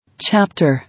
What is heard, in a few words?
"Chapter", English